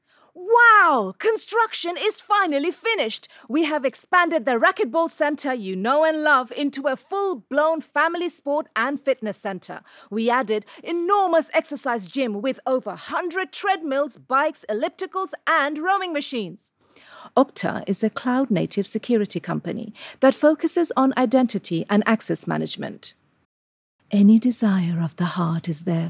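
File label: Bangla female english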